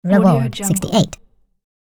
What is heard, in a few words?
cartoon voice says reward